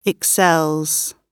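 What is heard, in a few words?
"excels", British English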